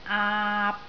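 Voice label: "aap3", Cantonese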